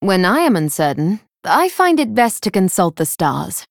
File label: Female Night Elf Idle